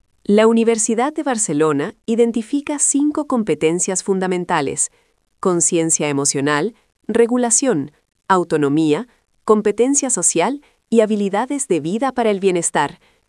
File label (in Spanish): locucion